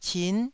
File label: "cin9", taiwanese